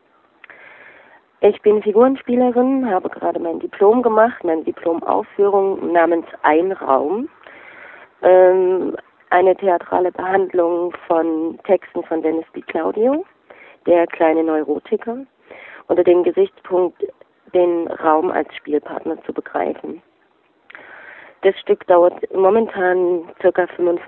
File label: Voicemail